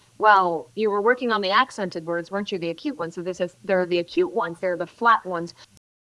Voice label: Voice Acting es